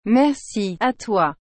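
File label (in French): "Merci à toi", French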